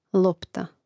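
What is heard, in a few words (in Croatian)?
"lopta", Croatian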